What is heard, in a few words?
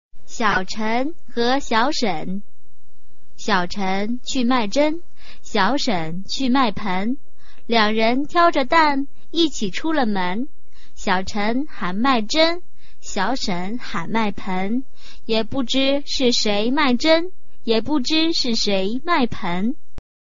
chinese tongue twister